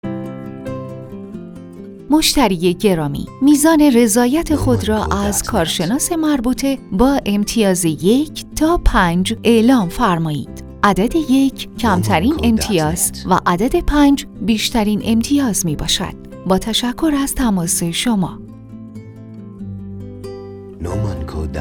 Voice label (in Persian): فایل صوتی سیستم نظرسنجی تلفن گویا با گوینده خانم